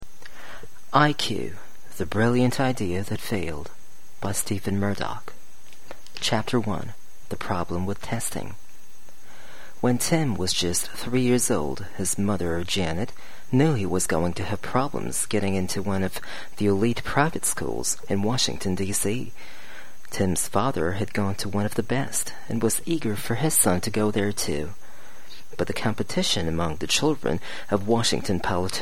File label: male voice